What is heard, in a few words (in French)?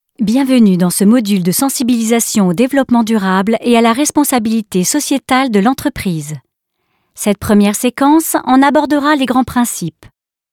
Elearning